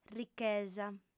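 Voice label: "richesa", female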